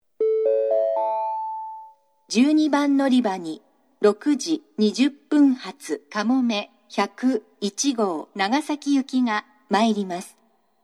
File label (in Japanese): 12番のりば接近予告放送; かもめ101号 長崎行き; shin omura